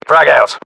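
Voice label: inform attack grenade, battlechatter, British; localized english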